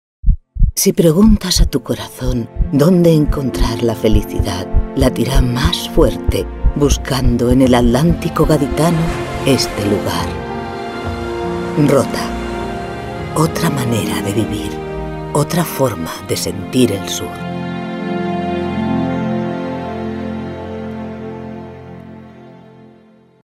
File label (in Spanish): Femenino